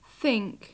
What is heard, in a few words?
"think", English